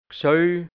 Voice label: "Gsöll", Hianzisch, Mundart, Burgenland; Geselle

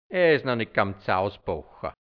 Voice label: "ea is nou(c)h nit ganz ausbocha", hianzisch Mundart, Burgenland

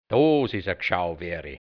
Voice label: "dos is a Gschauweri", Hianzisch, Mundart, Burgenland

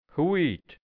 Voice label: "Huit", Hianzisch Mundart; m Hut

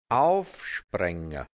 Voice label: "aufspre(i)nga", hianzisch; aufspritzen; befeuchten; naß machen

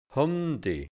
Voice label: "humdi(g)", Hianzisch Mundart; hundemäßig; schlecht, elend

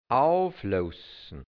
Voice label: "auflousn", hianzisch; aufhorchen

